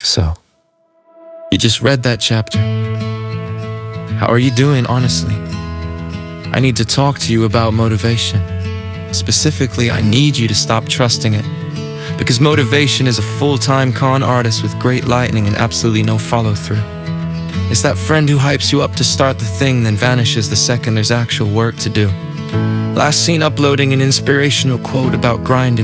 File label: Male; chapter 9 audio